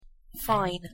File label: "fine", en